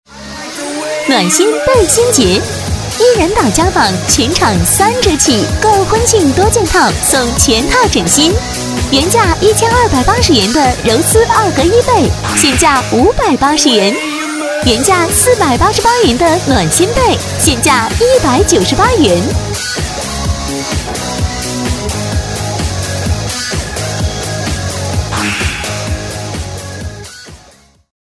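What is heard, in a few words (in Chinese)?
促销广告配音, 女声配音欢快、时尚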